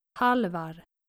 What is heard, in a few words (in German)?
"halvar", skandinavische jungennamen